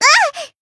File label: damaged, jp; thillelille